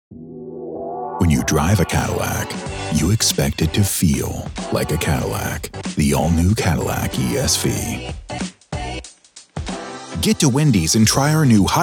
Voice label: english american commercial; Englisch (US); Vereinigte Staaten